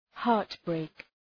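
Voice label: "heartbreak", English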